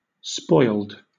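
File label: "spoiled", British English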